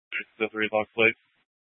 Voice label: Police Department Dispatch, scanner